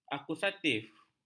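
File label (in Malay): "akusatif", Malay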